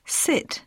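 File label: "sit", English; poitiers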